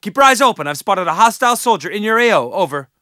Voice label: spot; bf3